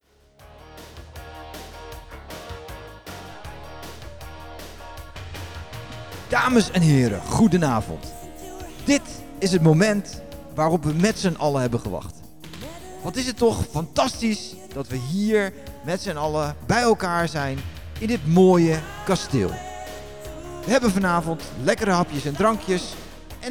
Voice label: Voice over; Topgun